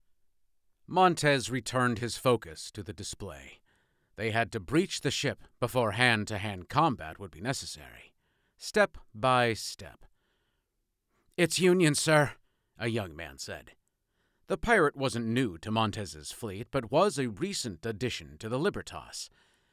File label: Male SciFi Conversation